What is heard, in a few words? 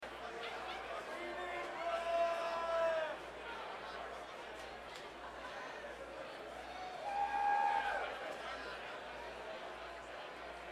Crowd Banter